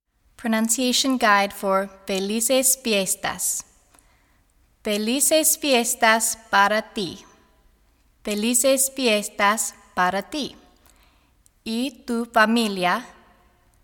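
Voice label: Felices Fiestas Pronunciation Guide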